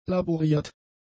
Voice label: "laboriert", German; adjectives